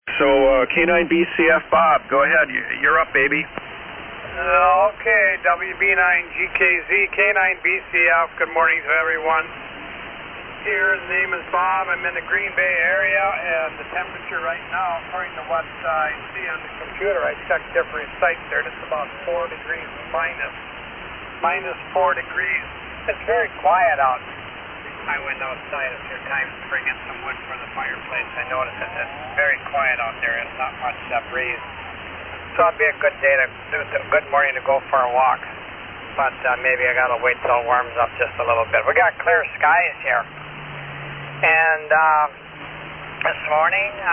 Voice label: Heathkit HW-101 EV-619 Mic; Greenleaf, Wi.